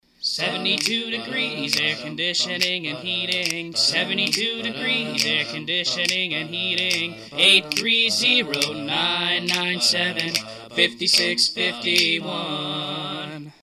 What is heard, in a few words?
male